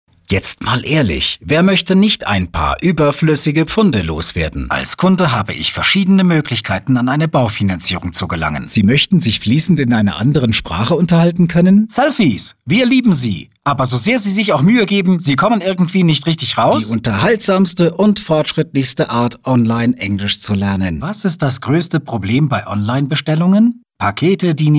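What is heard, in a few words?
male German general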